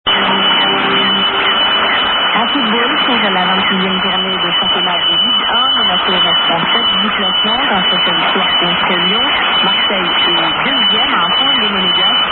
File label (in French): locutora